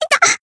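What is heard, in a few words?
Voice damaged, Japanese; harle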